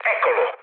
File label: enemy detected mood low, speech it; War Thunder